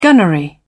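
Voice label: "gunnery", British English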